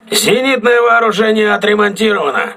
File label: voice message aa turret repaired, russian